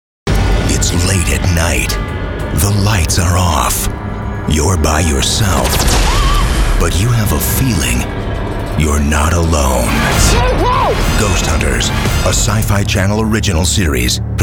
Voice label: SciFi Promos